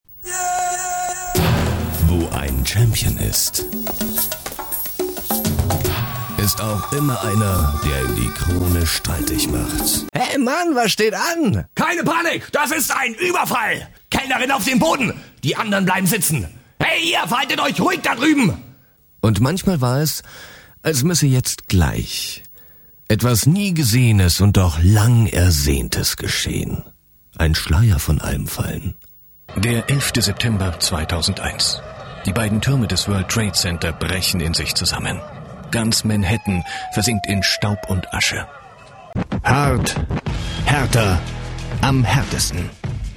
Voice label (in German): Verschiedene Emotionen